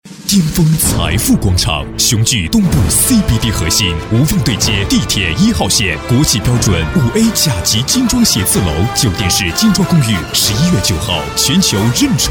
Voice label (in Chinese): 男国 广告 地产 浑厚; 财富广场精装公寓